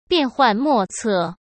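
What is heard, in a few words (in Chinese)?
"变幻莫测", Mandarin Chinese; chinese-flashcards; hsk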